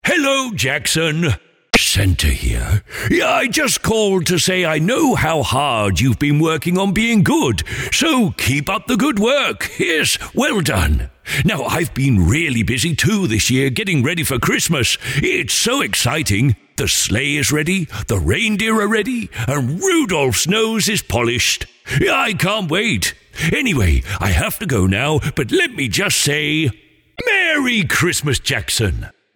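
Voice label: Personalised Santa Message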